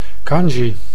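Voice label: "kanji", Dutch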